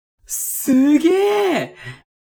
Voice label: man